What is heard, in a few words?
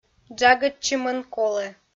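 "джагӧдчымӧн колӧ", Komi, female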